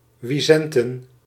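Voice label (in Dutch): "wisenten", Dutch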